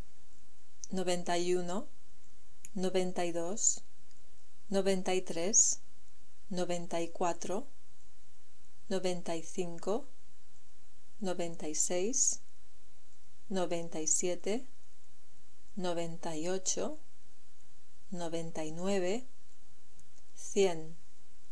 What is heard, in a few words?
Numbers 90 110, spanish